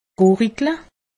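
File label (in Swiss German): "gurigle", Alsatian; medecine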